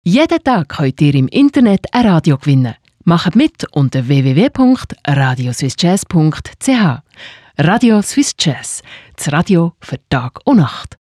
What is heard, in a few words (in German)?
Spot